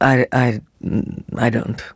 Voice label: neutral; opal